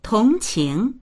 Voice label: "tong2qing2", voices; 同情

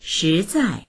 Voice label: "shi2zai4", voices; 实在; 本当に、実在の

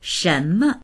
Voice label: "shen2me", voices

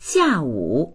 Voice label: "xia4wu3", voices

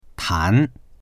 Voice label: "tan2", voices; 谈; 話す、話し合う

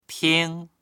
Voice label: "ting1", voices; 听; 聞く; dictionary

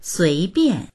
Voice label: "sui2bian4", voices; 随便; suíbiàn; 自由な、気ままな

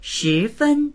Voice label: "shi2fen1", voices; shífēn; 十分; 十分に

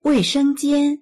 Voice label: "wei4sheng1jian1", voices

